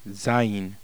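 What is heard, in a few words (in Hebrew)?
"zayin", Hebrew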